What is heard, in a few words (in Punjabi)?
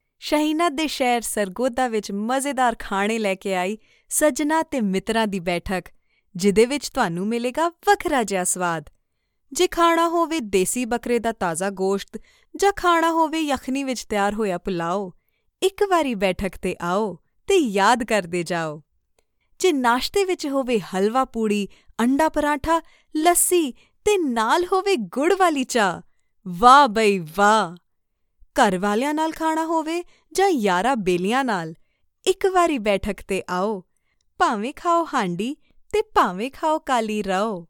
Commercial Punjabi